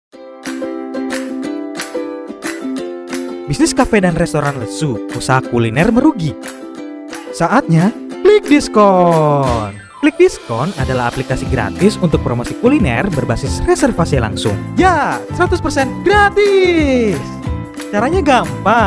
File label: sample vo comercial